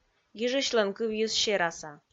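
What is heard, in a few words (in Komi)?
"гижысьлӧн кывйыс сераса", Komi, female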